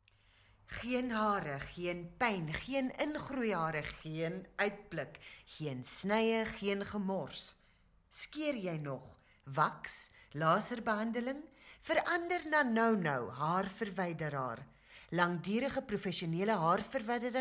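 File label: Afrikaans, Commercial; GoLocalise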